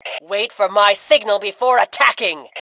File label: Attack Wait, Female; T2 Female 04